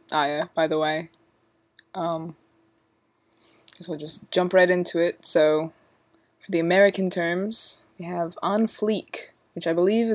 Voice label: accent challenge us vs uk